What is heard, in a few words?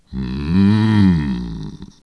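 Night Elf Male error cant create here, Czech; wowpreklad; czwow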